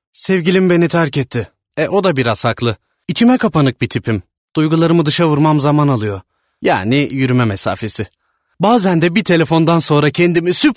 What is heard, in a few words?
locutor turco turkish male voiceover